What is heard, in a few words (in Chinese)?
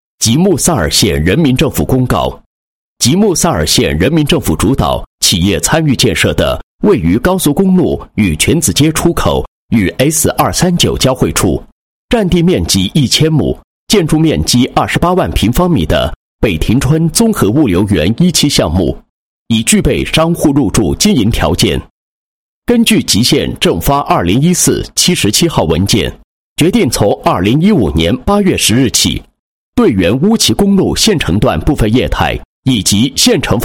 男 公告 县人民政府公告, 配音案例